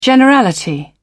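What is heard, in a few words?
"generality", British English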